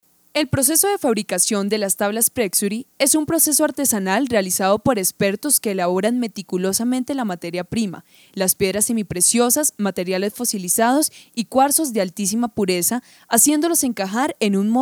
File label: locutora colombiana colombian female voiceover